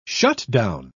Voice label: "shutdown", pronounce

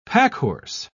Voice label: "packhorse", pronounce

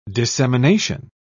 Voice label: "dissemination", pronounce

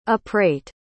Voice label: "uprate", pronounce

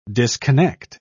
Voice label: "disconnect", pronounce

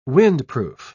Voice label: "windproof", pronounce

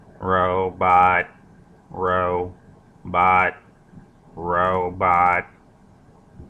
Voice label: robot speak robot

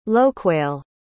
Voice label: "loquail", pronounce

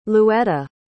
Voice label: "louetta", pronounce

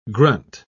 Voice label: "grunt", pronounce

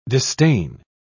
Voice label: "distain", pronounce

